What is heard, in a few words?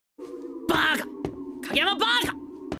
says baka; hinata